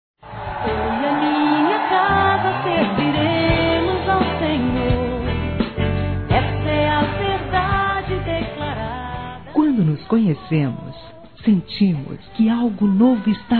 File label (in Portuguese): ANIV CASAM ROMANTICO (F); Aniversários; ANIV. Evangelico; Fem